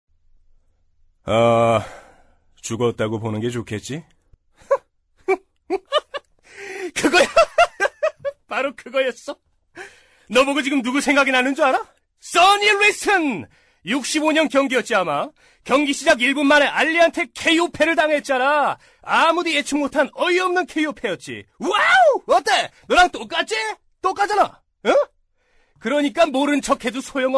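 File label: Korean, male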